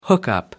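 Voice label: "hookup", British English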